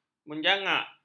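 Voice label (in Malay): "menjangak", Malay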